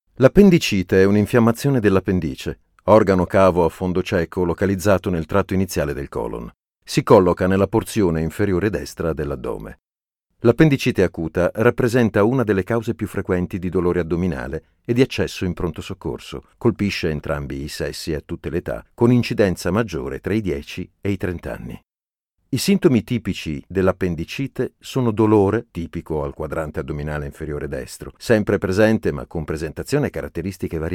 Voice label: medicale narrative

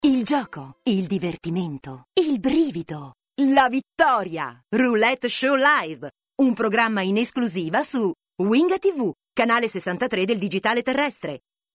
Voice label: promo tv

